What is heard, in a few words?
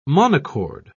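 "monochord", English, British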